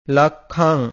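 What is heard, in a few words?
"lakkham_", Pali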